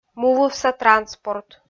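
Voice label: "мувывса транспорт" Komi, female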